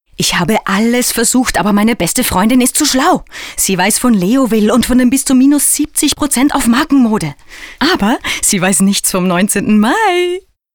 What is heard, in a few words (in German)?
Frau